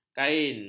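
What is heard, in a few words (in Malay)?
"kain", Malay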